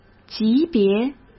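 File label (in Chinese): "级别", Mandarin Chinese; hsk; chinese-flashcards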